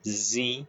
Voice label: short voyels arabic alphabet